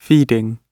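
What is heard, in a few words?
"feeding", British English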